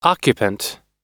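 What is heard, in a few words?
"occupant", American English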